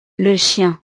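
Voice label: "le chien", French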